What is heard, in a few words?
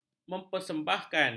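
"mempersembahkan", Malay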